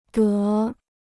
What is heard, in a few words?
"德", Chinese